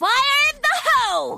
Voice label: Chinese dub; raze